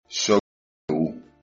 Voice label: I'm from, Chechen